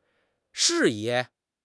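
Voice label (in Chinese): "适宜", Chinese; HSK6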